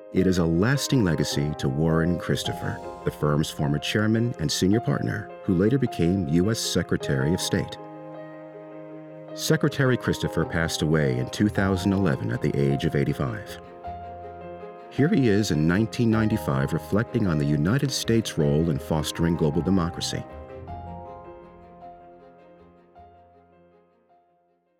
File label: Scholarship Video Opening VO; OMM Scholarship Video